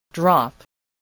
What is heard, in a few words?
"drop", spelling